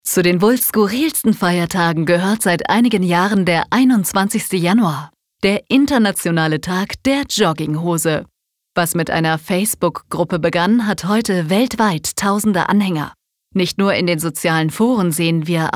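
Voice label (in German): Kommentar Freundlich; Feiertag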